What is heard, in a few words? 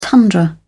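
"tundra", British English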